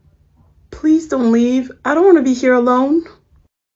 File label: Sad Es